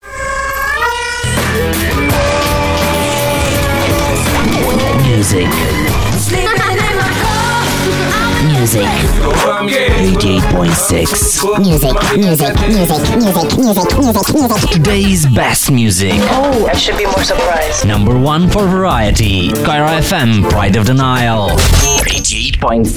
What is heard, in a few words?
Radio